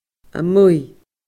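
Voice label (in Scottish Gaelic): "a muigh", Scottish Gaelic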